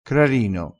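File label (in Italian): "crarino", dialettale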